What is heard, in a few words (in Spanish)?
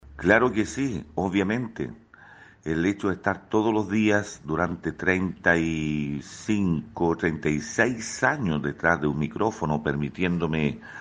Cuña